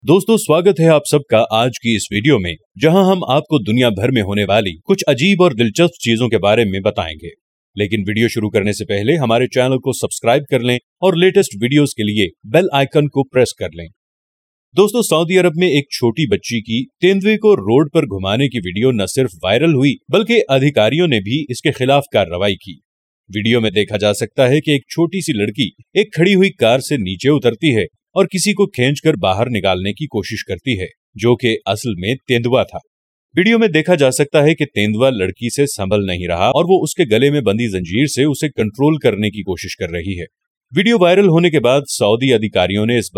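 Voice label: Hindi Sample, voiceover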